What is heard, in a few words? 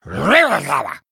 Male Murloc Attack, zh